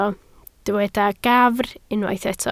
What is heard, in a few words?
"gafr", Welsh